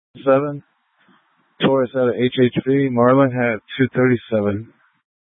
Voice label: Public Transit System Light Rail Security, scanner; Regional Wireless Cooperative (RWC); Valley Metro; Thompson Peak High